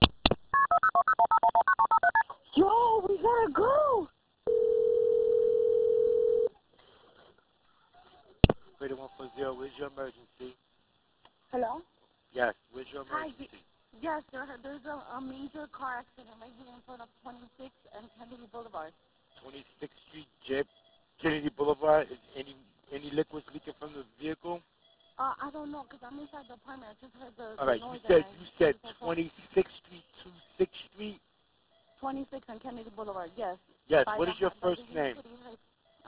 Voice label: 911 call